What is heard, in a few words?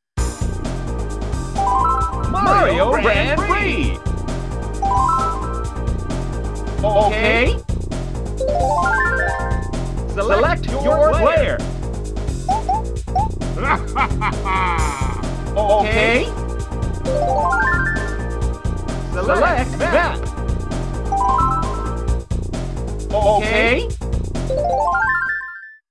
announcer, jp; mk64